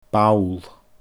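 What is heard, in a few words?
"ball", Scottish Gaelic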